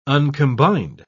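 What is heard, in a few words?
"uncombined", British English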